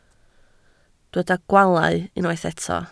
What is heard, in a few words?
"gwaalau", Welsh